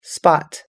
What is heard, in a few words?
"spot", us english